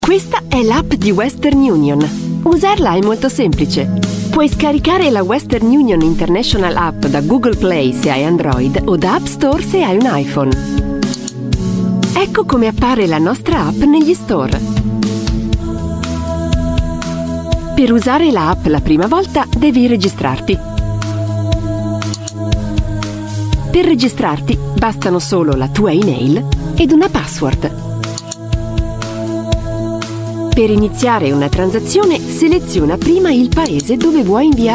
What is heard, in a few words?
italian voice over female narrator